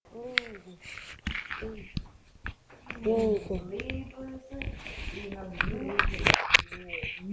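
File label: "lead", spoken word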